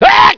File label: pain, scientist; cstrike